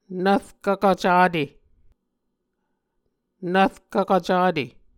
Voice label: "nvrkvkv cate", Muscogee

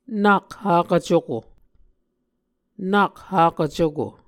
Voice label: "nak hakv cuko", Muscogee

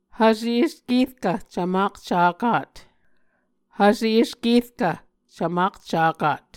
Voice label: "hvse eskerkv cvmakcakat", Muscogee; Mvskoke Words with Voicing Consonants; A Dictionary of Creek/Muskogee; SIL International; Onvkv Ohhvyayices

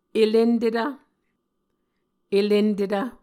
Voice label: "elentetv", muscogee

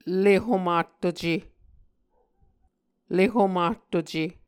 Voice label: "le homahtuce", Muscogee

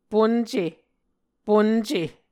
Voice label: "ponce", Muscogee

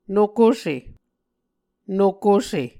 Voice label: "nokose", Muscogee

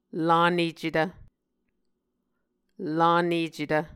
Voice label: "lanecetv", Muscogee

